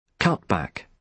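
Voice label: "cutback", British English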